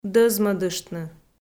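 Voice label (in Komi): "дӧзмӧдыштны", Komi, female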